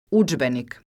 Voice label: "Udzbenik", croatian

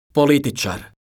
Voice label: "politicar", Croatian

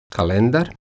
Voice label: "kalendar", Croatian

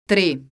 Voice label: "tri", Croatian

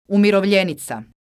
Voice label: "umirovljenica", Croatian

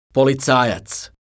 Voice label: "policajac", croatian

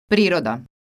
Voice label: "priroda", Croatian

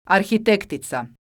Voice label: "arhitektica", croatian

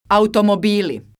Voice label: "automobili", croatian

